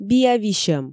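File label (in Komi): биа висьӧм, Komi, female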